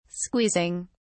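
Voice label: "squeezing", British English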